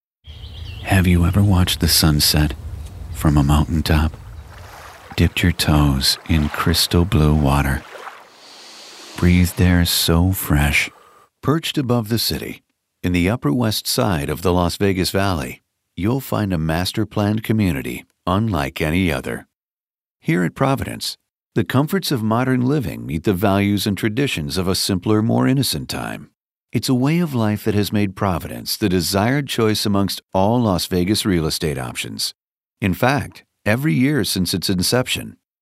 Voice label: Soft Spoken